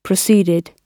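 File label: "proceeded", British English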